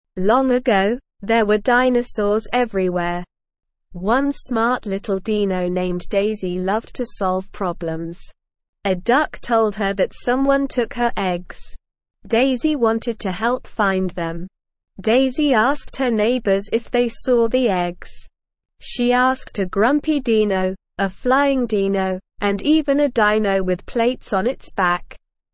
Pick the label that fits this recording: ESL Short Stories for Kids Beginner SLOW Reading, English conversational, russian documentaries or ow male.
ESL Short Stories for Kids Beginner SLOW Reading